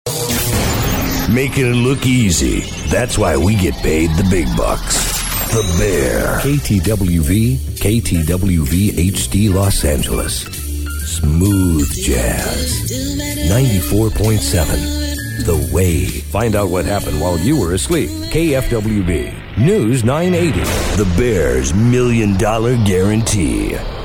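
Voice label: radio imaging, male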